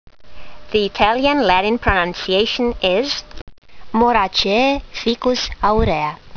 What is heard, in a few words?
latin; Ficus aurea